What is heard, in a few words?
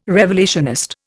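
"revelationist", Indian English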